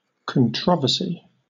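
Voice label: "controversy", British English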